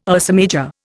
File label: "Ursa Major", Indian